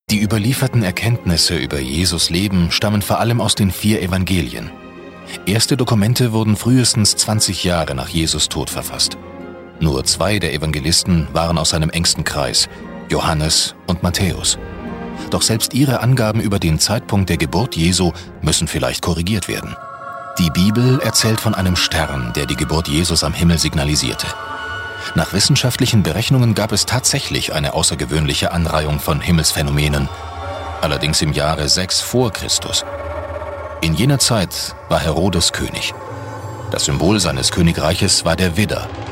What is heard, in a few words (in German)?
Erzähler